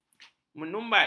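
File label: "menyumbat", Malay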